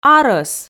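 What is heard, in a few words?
"арӧс", Komi, female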